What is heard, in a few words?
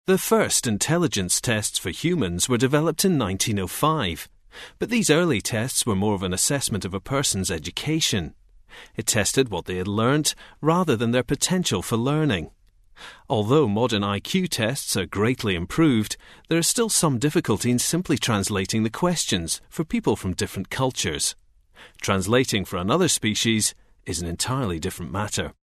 narration